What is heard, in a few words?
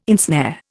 "ensnare", Indian